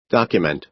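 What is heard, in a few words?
"document", American English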